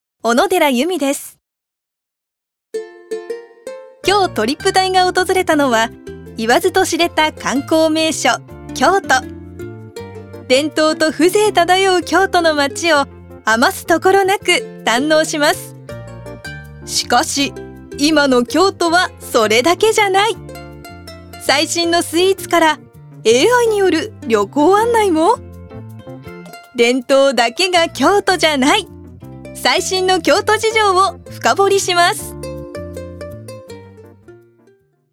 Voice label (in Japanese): ナチュラル